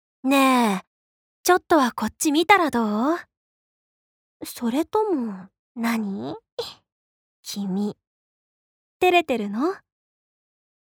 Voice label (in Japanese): アニメ